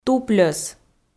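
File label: "тупльӧс", Komi, female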